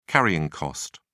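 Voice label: "carrying cost", English, British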